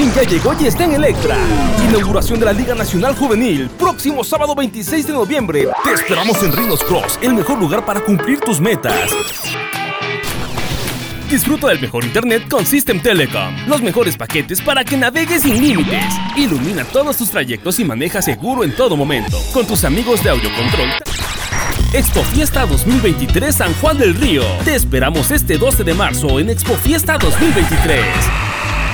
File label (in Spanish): voz masculina